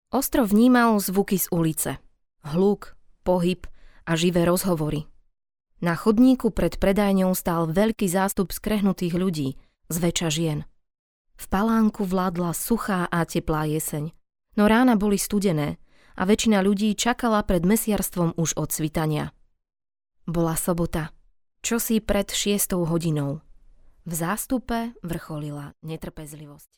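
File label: Slovak, female, narration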